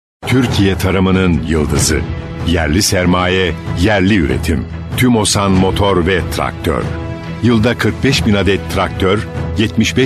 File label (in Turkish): reklam kurumsal; tumosan